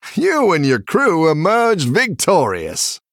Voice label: Male Human Combat Win